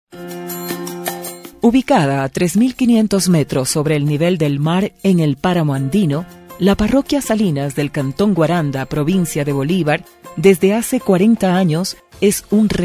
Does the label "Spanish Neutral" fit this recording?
Yes